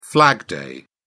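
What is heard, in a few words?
"flag day", British English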